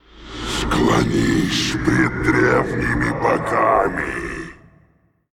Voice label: Male Faceless Play; Hearthstone; датамайн обновления 5.0

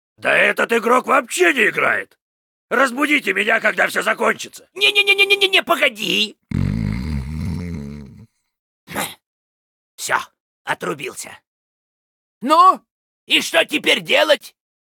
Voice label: Pissed; Heroes of the Storm; LostVikingsBase

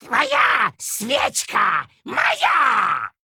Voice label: Male Kobold Play; Hearthstone; датамайн обновления 5.0

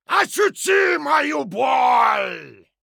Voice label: Male Dwarf Attack

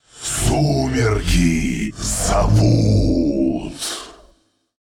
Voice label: Male Elemental Attack; Hearthstone; датамайн обновления 5.0

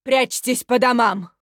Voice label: Female Human Play; Hearthstone; датамайн обновления 5.0

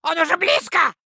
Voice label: Male Gnome Attack

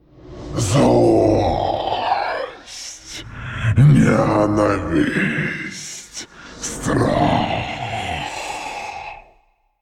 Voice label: Androgynous Faceless; Hearthstone; датамайн обновления 5.0

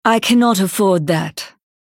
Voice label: Insufficient Funds, en US

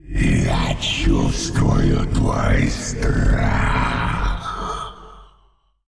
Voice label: Male Old God Attack

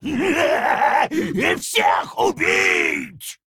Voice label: Male Troll Attack

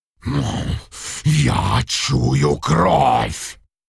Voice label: Male Worgen Play; Искаженный ворген; Hearthstone: датамайн обновления 5.0

